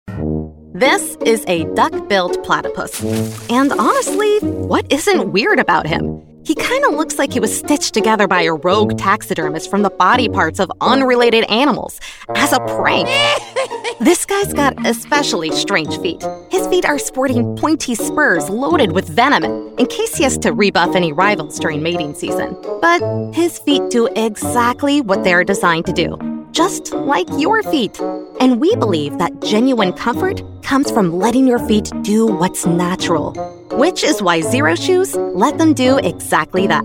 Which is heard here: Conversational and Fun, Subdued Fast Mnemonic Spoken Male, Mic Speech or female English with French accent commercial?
Conversational and Fun